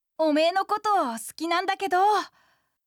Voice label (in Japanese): 真好きです 茨城